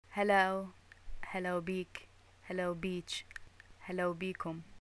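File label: greeting hi, Iraqi Arabic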